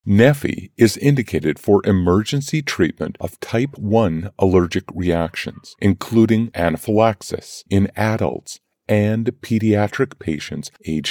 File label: Medical Sample No Music, Voice Over; Medical Sample for Website